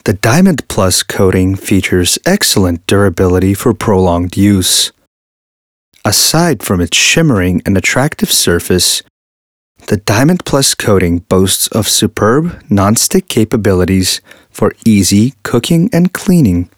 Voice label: Serious